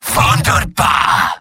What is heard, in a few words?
medic positive vocalization; tf2; mvm